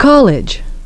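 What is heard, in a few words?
"college", English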